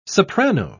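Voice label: "soprano", spoken word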